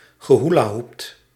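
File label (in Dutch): "gehoelahoept", Dutch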